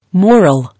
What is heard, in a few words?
"Moral", English